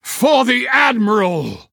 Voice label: Male Human Footman Attack